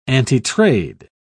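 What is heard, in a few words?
"antitrade", British English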